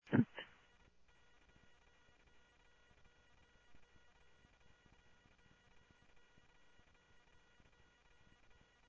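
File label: Sheriff Lake Patrol, scanner audio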